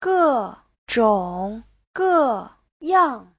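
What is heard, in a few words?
"gèzhǒng gèyàng", Mandarin Chinese; các loại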